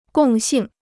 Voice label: "共性", Chinese